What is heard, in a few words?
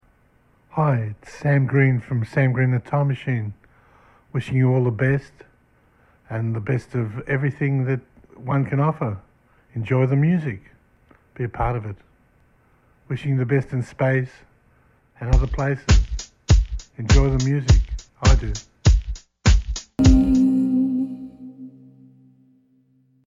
bbs radio promo